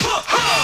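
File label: "huh huh", vocal sample; Voice; ooh ahh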